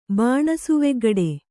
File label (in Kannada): "ಬಾಣಸುವೆಗ್ಗಡೆ", kannada